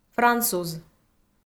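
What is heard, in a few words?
"француз", Komi, female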